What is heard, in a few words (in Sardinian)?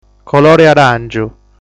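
"Colori De Aràngiu", Sardinian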